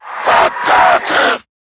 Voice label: Surprised; Zariman Wraith; en

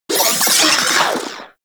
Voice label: Vocal Death; Warframe; Corpus; Arisoctrats; Mini Moa

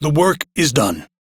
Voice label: Crew Task Done, Male, en; Warframe; Railjack Crew; Arbiters Hexis

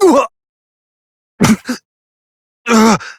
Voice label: Struggle Long Adult; New War; Operator

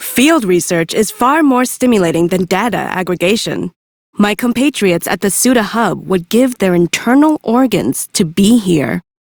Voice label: Idle, Female; Warframe; Railjack; Cephalon Suda

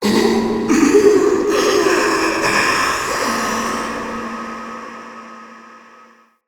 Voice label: Wandering Ghost Soldier Death A, Vocals

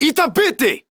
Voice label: Attack Male, Battle Barks; Warframe; Modular Corpus; en

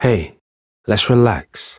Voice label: Ambient Barks, en; Warframe; Mall Romance; Quincy

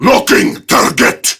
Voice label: Attack Prime Grineer Male; Tau; Battle Barks

